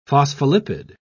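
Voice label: "phospholipid", British English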